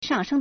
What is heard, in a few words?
"shang4sheng1", Chinese